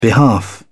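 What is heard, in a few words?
"behalf", en, uk British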